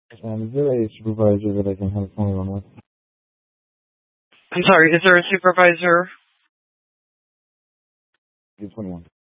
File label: Police Dispatch